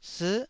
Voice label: "sii9", Taiwanese syllable

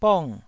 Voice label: "bong4", taiwanese syllable

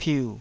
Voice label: "piu3", taiwanese

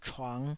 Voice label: "chuang5", taiwanese

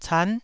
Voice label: "can9", taiwanese syllables

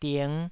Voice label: "ding5", taiwanese syllable

